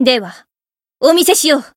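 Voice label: voice limitbreak, Japanese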